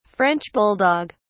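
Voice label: "french bulldog", British English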